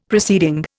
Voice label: "proceeding", Indian; Dictionary

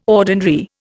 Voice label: "ordinary", Indian

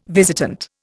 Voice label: "visitant", Indian

